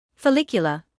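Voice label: "follicular", British English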